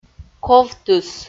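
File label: "ковтыс" Komi, female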